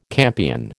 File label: "campion", American